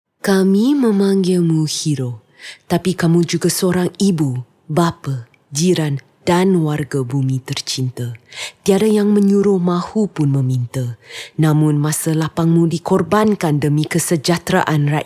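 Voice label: BM voice over; Petronas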